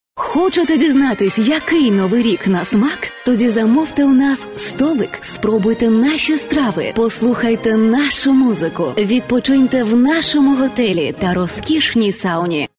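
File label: Ukrainian, female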